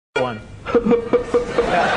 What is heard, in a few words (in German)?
deutsch; hallervorden